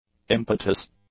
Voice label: "impetus", English; nouns

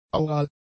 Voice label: "aural", German; adjectives

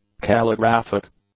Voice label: "calligraphic", English; adjectives

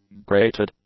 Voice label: "grated", english; adjectives

